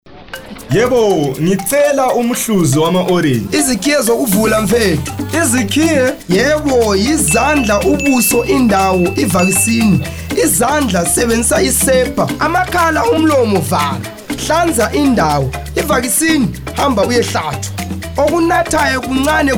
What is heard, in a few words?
Radio Juice seller Ndebele